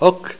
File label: "ok", Esperanto; eight